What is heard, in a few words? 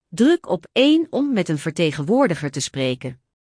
menu, phone